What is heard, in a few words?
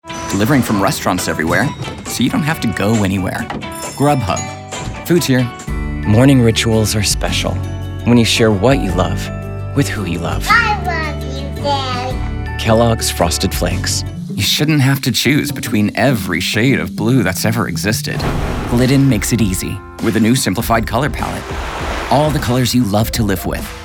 Commercial